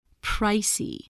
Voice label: "pricey", voice, spelling

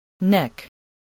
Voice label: "neck", voice

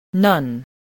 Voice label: "none", voice

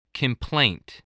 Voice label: "complaint", english

